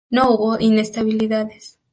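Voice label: "hubo", Spanish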